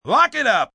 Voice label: Lock It Up, Film, movie, direction, assistant director, male 'Lock it up', (human voice speaking); Talking Sound Effects; VoiceBox; Twistedtracks